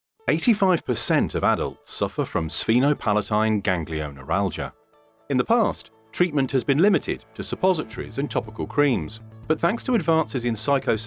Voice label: medical Narration

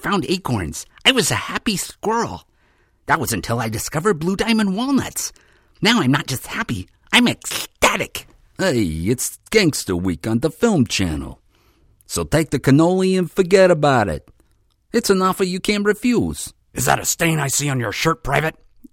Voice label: character voice

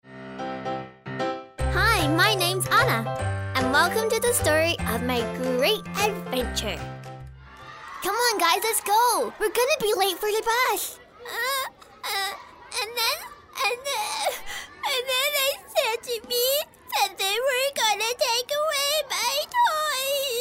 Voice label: Kids

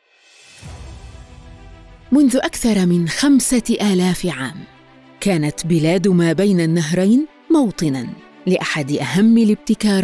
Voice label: Arabic; MSA

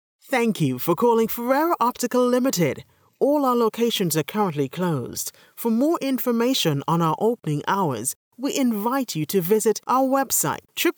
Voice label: PBX GREETING OUT OF OFFICE

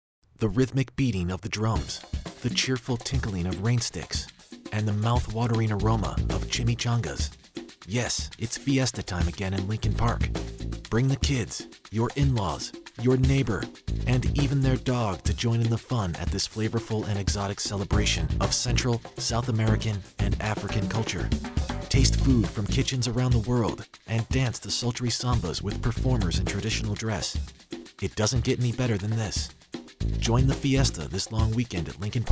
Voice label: Radio Commercial

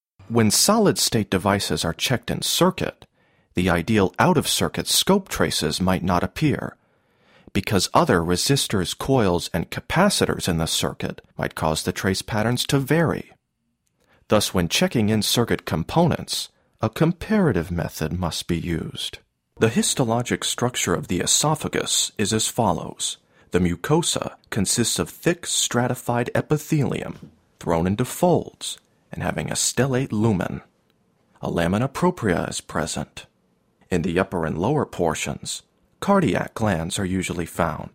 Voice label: Dry VO

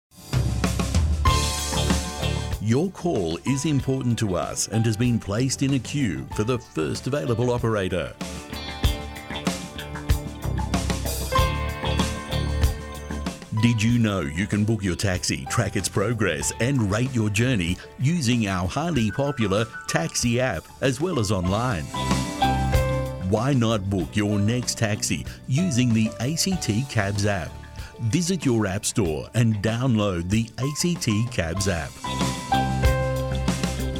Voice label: ON HOLD

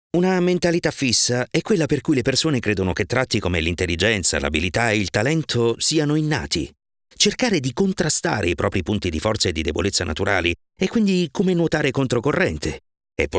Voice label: Podcast; Mentalita di Crescita

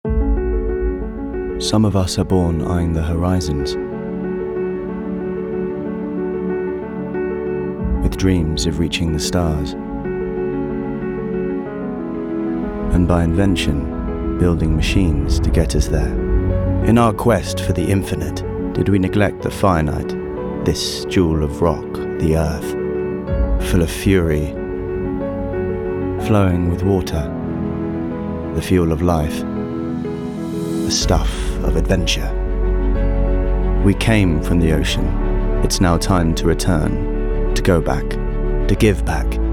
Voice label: Corporate Film; ARKSEN

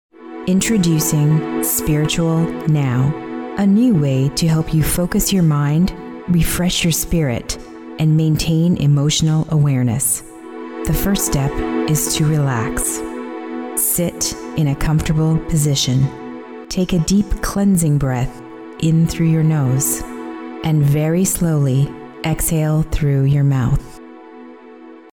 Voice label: Meditation

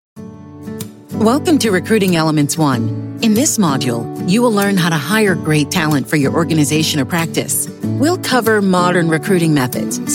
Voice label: RECRUTING SPOT

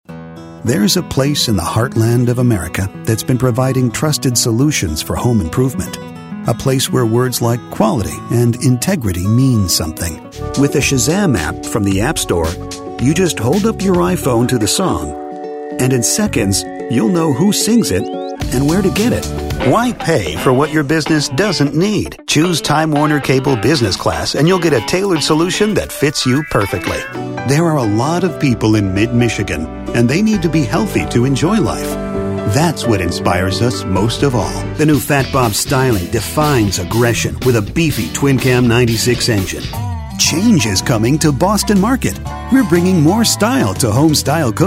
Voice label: Radio TV Commercial

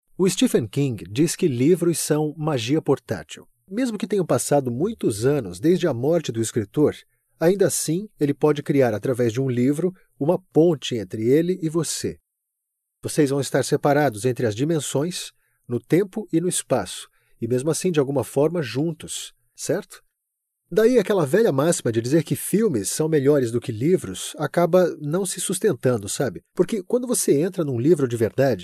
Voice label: podcast

